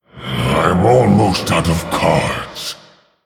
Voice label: Male Orc Low Cards

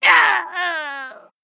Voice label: Male Vulpera Death; First Mate Pip

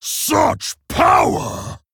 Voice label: Male Orc

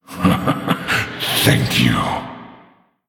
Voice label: Male Orc Thanks; Thrall Deathknight

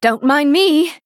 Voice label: Female Night Elf Attack

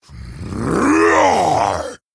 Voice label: Male Tauren Trigger

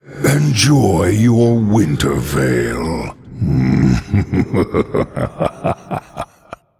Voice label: Male Undead Emote Knockout

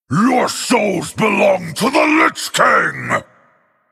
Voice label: Male Orc

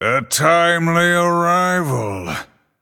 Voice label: Male Night Elf Bark

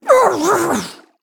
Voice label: Female Jinyu Death

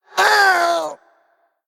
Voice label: Male Undead Death

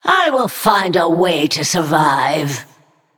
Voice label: Female Naga MIRROR START

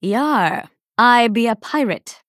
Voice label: Female Dryad PIRATE DAY

